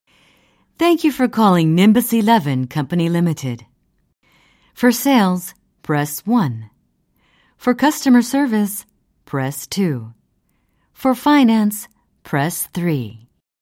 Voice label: Answering Machine English